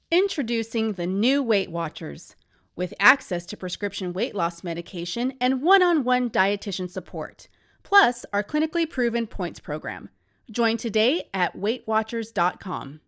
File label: voice over commercial; ww audition